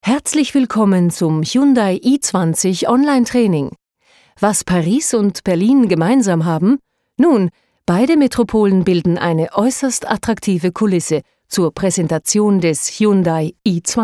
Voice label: oesterreichisch elearning; sprecher

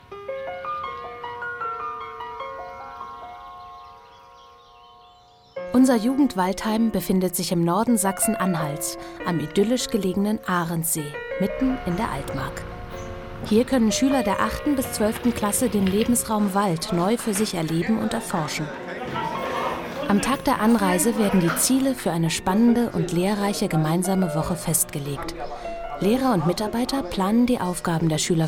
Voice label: deutsch frauen feature; sprecher

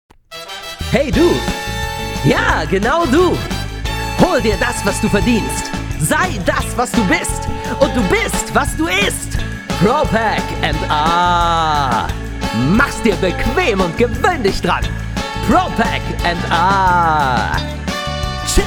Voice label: Werbung

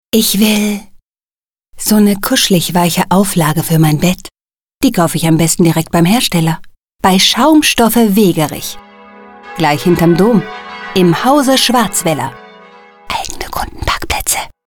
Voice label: werbung, deutsch frauen

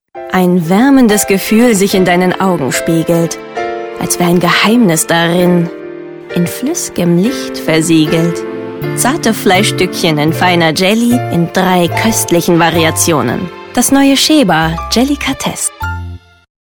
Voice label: werbung, deutsch frauen; sprecher

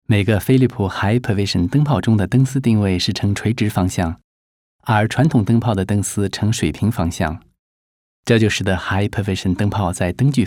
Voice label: chinesisch mandarin industrie

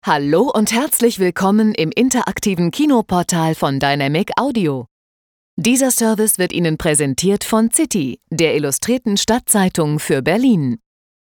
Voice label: deutsch frauen telefon

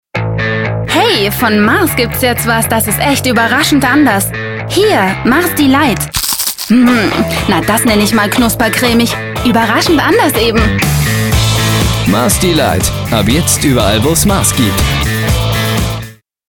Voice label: werbung, deutsch frauen

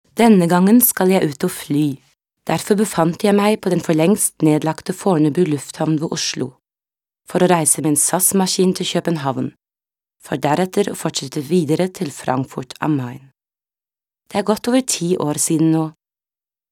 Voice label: norwegisch

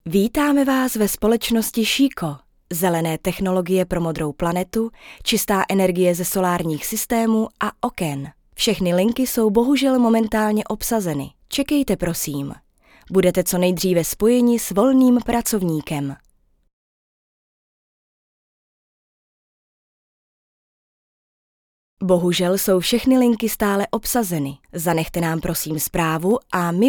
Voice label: cz telefon, tschechisch

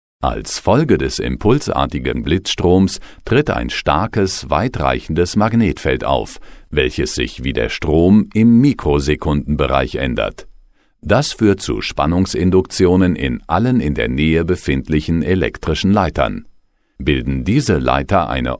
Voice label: de elearning, deutsch maenner

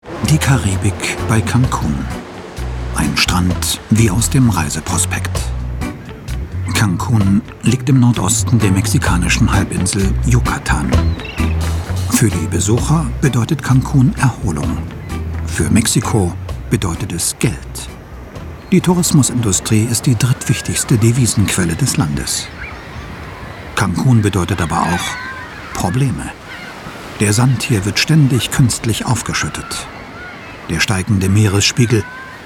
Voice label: Voiceover, deutsch maenner; sprecher

